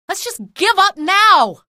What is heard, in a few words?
evac casualty, female; video games; npc; source engine; episodic; episode 1